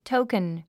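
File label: "token", English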